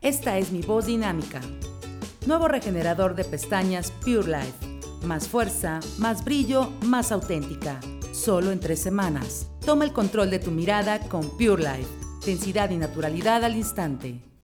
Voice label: DINAMICA, locutora

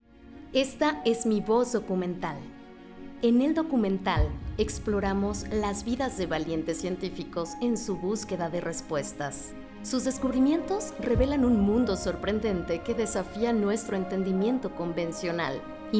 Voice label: Documental, locutora

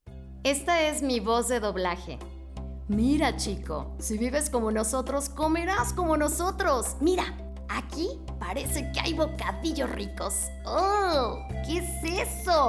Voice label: Actuada; locutora

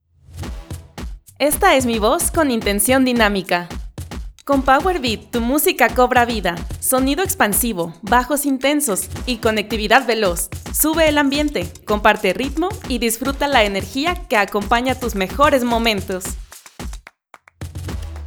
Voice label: dinamica Musicalizado, locutora

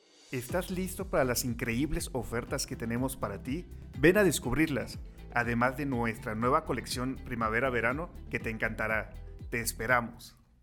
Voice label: voz dinamica; locutor

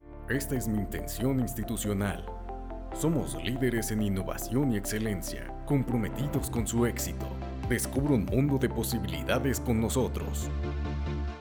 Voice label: Institucional

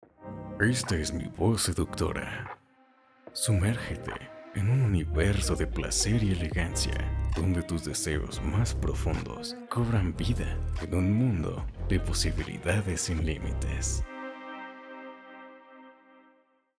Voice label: Seductora; locutor

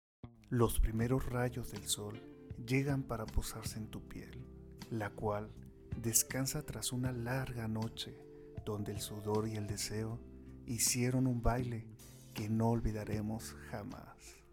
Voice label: voz seductora, locutor

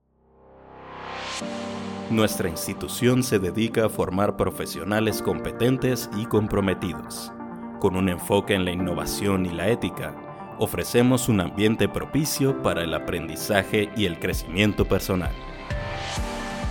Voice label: Institucional musicalizado

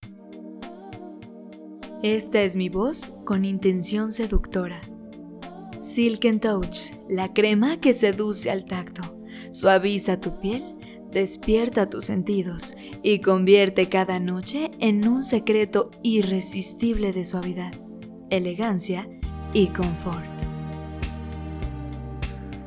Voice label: Seductora Musicalizado

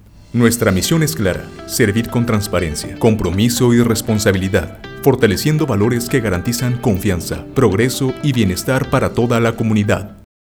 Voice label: Voz institucional Musicalizado

